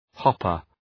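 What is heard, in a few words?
"hopper", English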